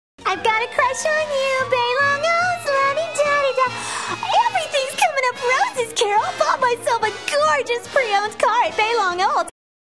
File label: Commercial